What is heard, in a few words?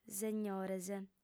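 "segnores", Sardinian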